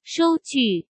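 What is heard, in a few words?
"收据", Mandarin Chinese; chinese-flashcards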